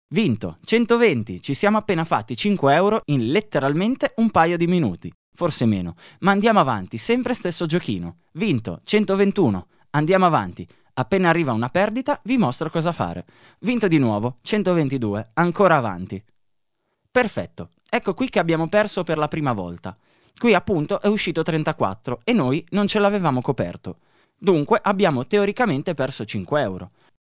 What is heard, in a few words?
ITALIAN